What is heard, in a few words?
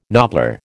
"nobbler", American